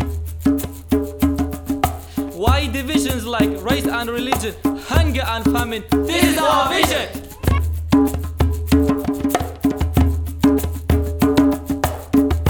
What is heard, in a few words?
RAP